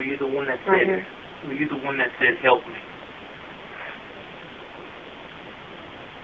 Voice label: "Help" sound; EVP, or electronic voice phenomena, are said to be the disembodied voices from spirits